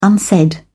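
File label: "unsaid", English, British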